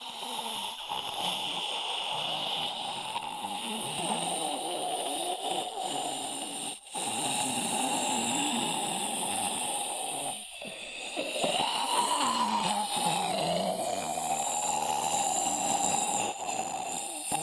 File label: Enemy General Girl Chloro Voice Loop